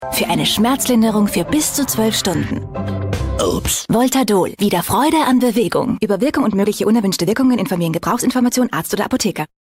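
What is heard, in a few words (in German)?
Austrian Dynamisch; Fremdtake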